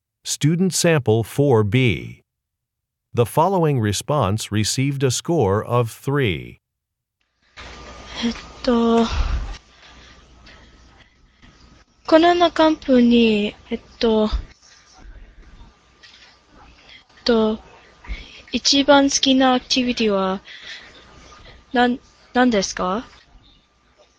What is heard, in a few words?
japanese conversation; Conversation 4 Sample B (Score of 4)